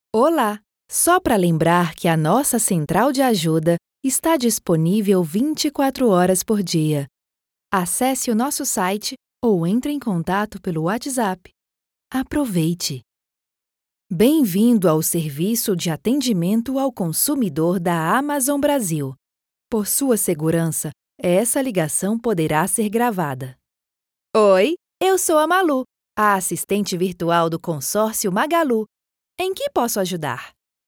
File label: portuguese brazilian ivr